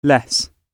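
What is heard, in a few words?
"less", British English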